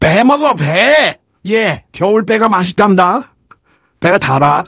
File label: engineer battlecry